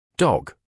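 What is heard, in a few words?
"dog", British English, male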